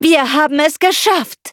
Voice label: victory, de

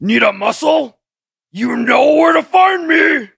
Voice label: level up, en; cookie run

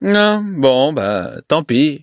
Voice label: defeat, fr; Cookie Run

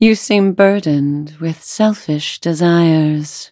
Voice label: touch, en; cookie run

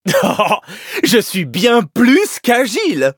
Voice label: victory, fr; Cookie Run

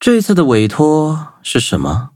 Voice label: upgrade, zh-hant; cookie run

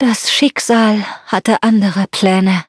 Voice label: defeat, de; cookierun

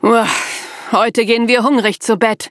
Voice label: defeat, de; Cookie Run

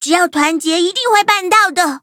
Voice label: upgrade, zh-hant; Cookie Run

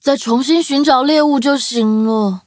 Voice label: defeat, zh-hant; cookierun

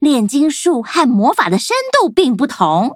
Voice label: loading, zh-hant; Cookie Run

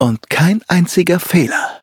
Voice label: victory, de; cookie run

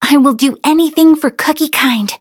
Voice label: level up, en; Cookie Run

